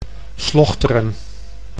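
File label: "Slochteren", Dutch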